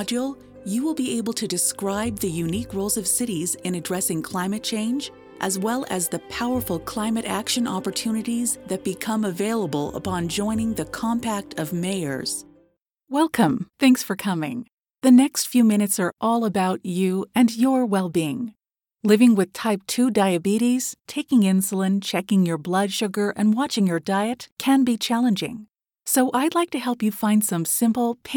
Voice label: E-LEARNING AND TRAINING